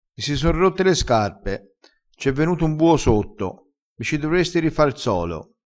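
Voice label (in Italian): "solo", dialetto crespinese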